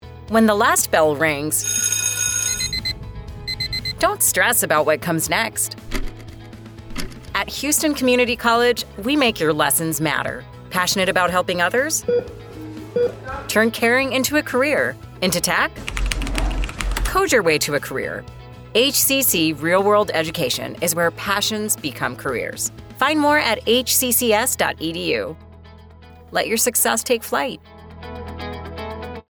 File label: English Woman